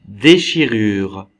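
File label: "déchirure", French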